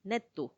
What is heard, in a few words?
"nettu", newborn, macuiltianguis; planta desarrollo